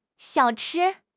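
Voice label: "xiao3 chi1", Chinese; HSK4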